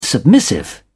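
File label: "submissive", British English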